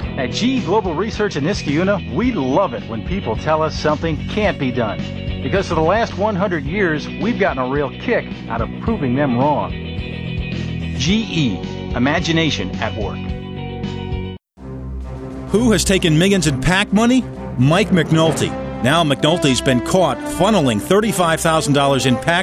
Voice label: Voiceover